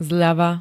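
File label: "zľava", Slovak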